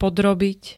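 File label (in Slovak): "podrobiť", Slovak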